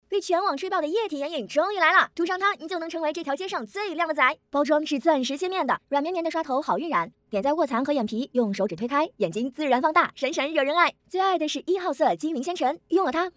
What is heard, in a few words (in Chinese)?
变速产品介绍